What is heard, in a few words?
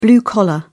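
"blue collar", British English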